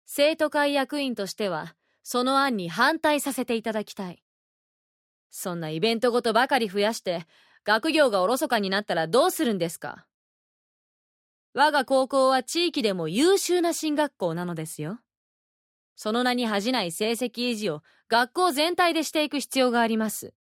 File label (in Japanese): アニメ